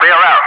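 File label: "bail out", English speech, Wingman; Messages; DCS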